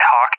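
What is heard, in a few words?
"Hawk", English, USA; AirDefence; DCS; Allied Flight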